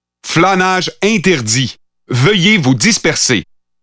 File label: Pathfinder French Standard Canadian